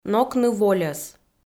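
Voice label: "нокны вольӧс", Komi-Zyrian, female; audio dict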